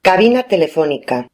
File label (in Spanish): "cabina telefonica", locuciones